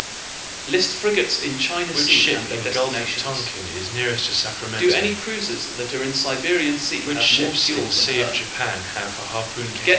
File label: mixture roomB SNR10 azim15 left